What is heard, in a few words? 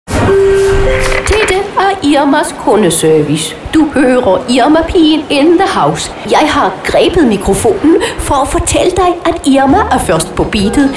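Danish Characters; GoLocalise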